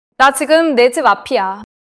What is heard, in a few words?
neutral, monologue